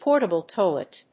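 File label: "Portable toilet", English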